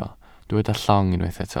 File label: "llong", welsh